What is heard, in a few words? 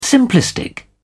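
"simplistic", British English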